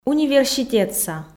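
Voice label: "университетса", Komi, female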